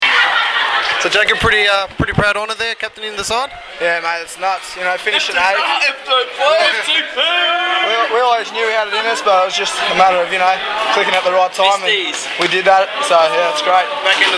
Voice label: interview